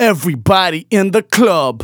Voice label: Phrase Male Rap; Vengeance Samples

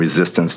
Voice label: LoFi Words, LoFi Vocals

